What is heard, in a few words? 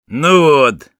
negative vocalization, ru